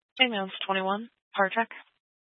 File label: Fire Department Dispatch; Grand Junction